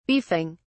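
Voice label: "beefing", English, British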